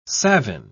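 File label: "savine", English, British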